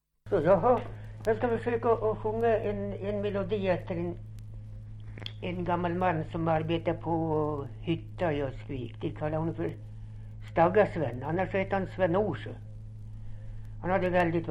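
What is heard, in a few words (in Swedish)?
Kopparberg Västmanland, dialekter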